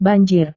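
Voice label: "banjir", Indonesian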